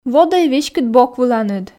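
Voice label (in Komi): "водӧй веськыд бок выланыд", Komi, female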